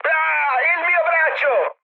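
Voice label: Italian damaged pilot mood med, speech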